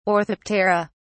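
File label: "orthoptera", British English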